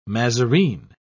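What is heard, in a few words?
"mazarine", British English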